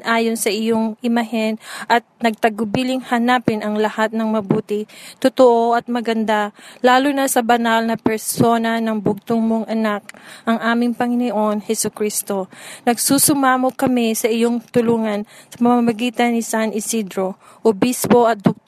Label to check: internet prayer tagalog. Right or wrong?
Right